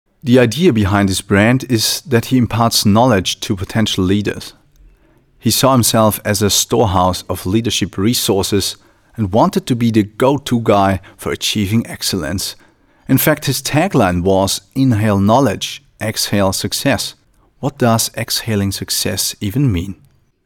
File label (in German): Dokumentation Englisch